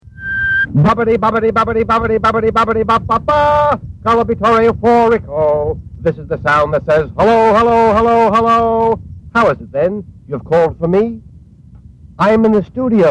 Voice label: Phone Msg